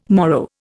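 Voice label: "morrow", Indian English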